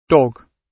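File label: "dog", British English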